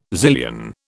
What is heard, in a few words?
"zillion", British